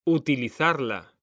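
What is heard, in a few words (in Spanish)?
"utilizarla", Spanish; mekanta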